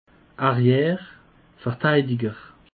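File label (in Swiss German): "arrier", Alsatian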